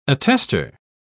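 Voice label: "attester", English, British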